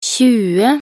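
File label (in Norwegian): "tjue", Norwegian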